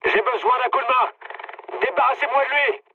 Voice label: aircraft damaged mood med, speech fr; War Thunder